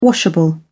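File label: "washable", British English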